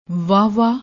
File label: "wah wah", Punjabi; ਵਾਹਵਾ